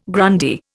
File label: "Grundy", Indian